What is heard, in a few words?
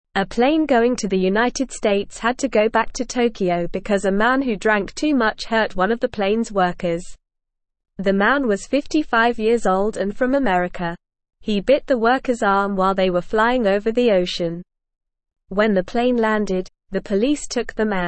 English Lower Intermediate NORMAL Reading; Plane Trouble Man Bites Worker Other Problems in; English Newsroom